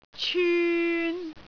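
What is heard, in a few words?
"cyun3", Cantonese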